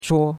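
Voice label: "chuo1", Chinese; Cours-de-Chinois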